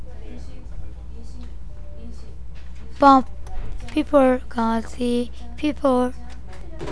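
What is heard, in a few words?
"puppy", spoken word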